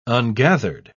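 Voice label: "ungathered", English, uk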